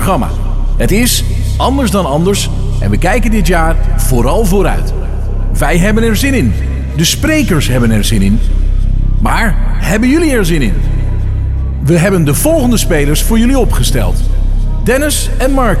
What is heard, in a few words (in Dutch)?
Voice-over online event; Wij zijn Consolid